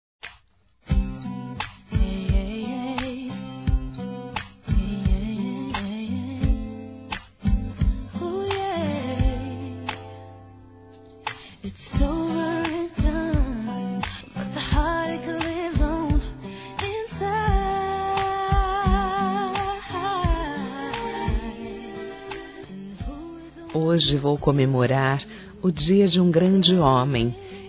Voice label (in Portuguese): Aniversário Pai Feminino; Aniversários; Aniv Familiar